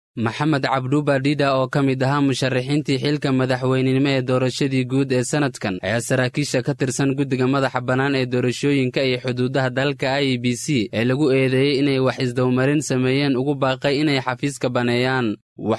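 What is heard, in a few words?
Somali Male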